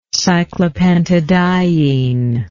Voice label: "cyclopentadiene", english